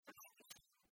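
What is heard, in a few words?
"аскисянь", Komi, female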